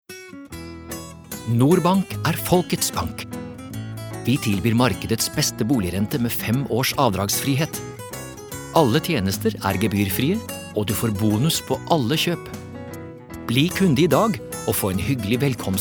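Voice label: Norwegian commercial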